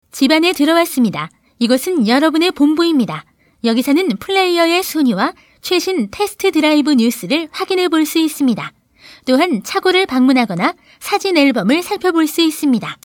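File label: korean female narration; voice demos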